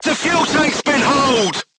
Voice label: voice message fuel tank damaged, vietnamese